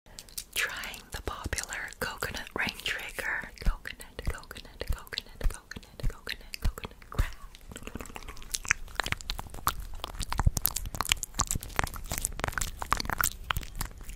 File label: whispering asmr; اسمر زمزمه